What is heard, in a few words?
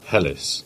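"Helston" Cornish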